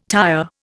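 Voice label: "tyre", Indian English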